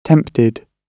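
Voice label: "tempted", British English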